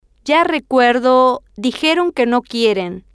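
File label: ya recuer dijeron que no quieren, Spanish, female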